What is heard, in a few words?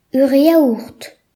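"yogurt", Breton; gcompris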